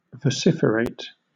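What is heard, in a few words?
"vociferate", British English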